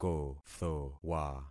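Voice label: "kothowa", concatenated syllables; Affixing experiment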